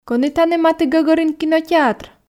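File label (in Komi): кӧні тані матігӧгӧрын кинотеатр, Komi, female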